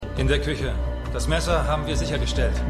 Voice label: Polizist, Stimmproben; LO SVU

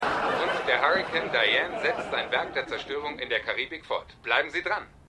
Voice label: Radiosprecher; Stimmproben; Die Nanny

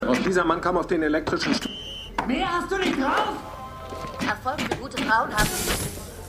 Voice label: Mann Frau TV; X-Men Dark Phoenix

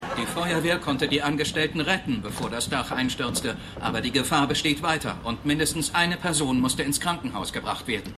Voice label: Nachrichtensprecher; Frasier

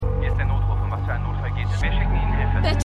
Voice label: Notrufmann, Stimmproben; LAW & ORDER

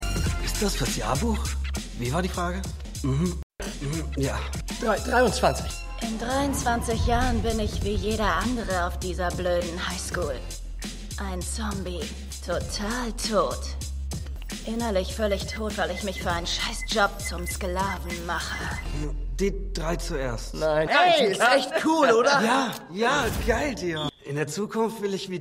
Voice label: Grunge Girl Sportler; The Flash

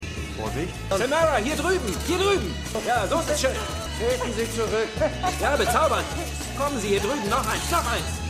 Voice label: Mann Pressefotograf

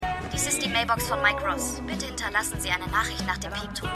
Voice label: Mailbox; Suits

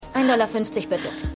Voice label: Kassiererin

